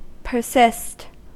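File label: "persist", American English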